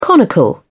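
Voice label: "conical", British English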